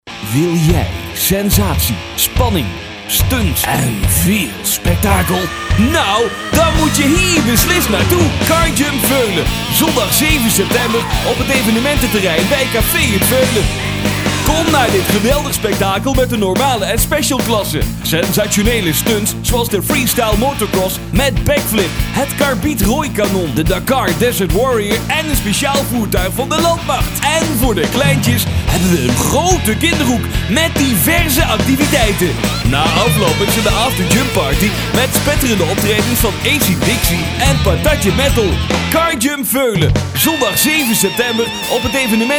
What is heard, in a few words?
radio spot; carjump